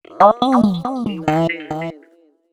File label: female c# 138, Dance Ultra Voice; Mutekki Media